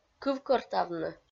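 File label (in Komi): "кывкӧртавны", Komi, female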